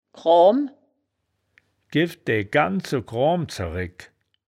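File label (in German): "kroam", wittlicher woerterbuch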